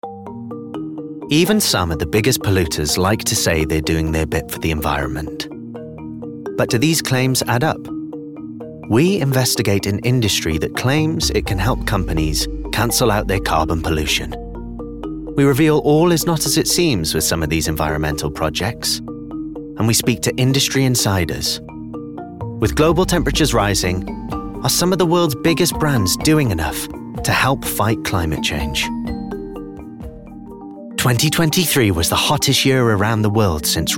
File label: Documentary, Welsh